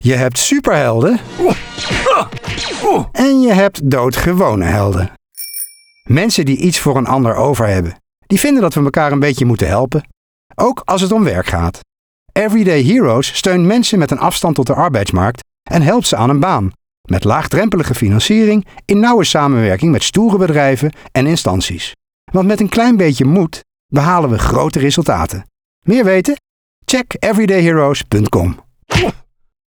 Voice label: Radiospot; Everyday Heroes